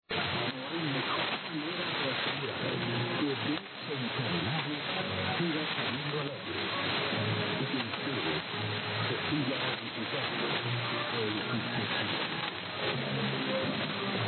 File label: Radio; Chaski